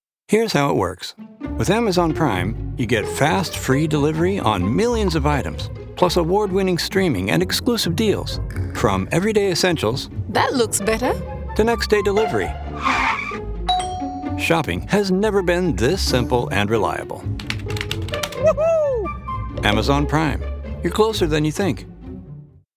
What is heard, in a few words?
TV spot; Amazon Prime